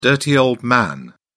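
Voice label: "dirty old man", British English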